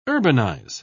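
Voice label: "urbanise", British English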